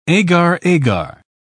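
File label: "agar-agar", British English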